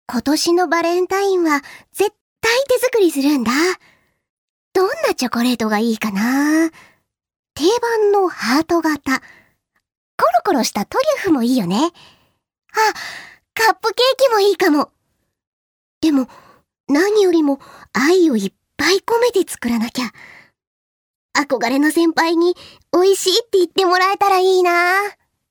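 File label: seikaku female fushigi chan; nenrei; f syozyo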